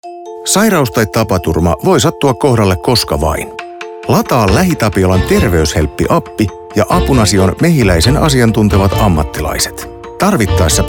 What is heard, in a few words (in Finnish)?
radio; terveyshelppi